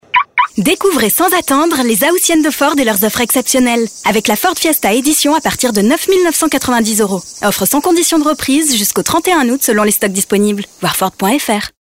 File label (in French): SPOT Souriante Dynamique Informative; Ford